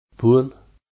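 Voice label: "blood", English, North Tyneside, Traditional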